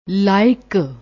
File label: "like", Punjabi